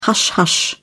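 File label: "hush hush", British English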